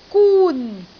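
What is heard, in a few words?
"gun1", Cantonese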